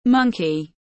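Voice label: "monkey", English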